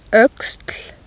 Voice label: "oexl", icelandic